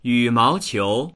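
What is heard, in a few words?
"yu3mao2qiu2", Mandarin Chinese; 羽毛球; バドミントン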